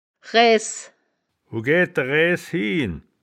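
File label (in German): "raeaes", wittlicher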